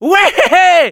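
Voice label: cheers, French; Scout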